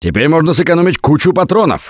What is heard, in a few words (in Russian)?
take melee, left4dead2 russian, Survivor Voice; Gambler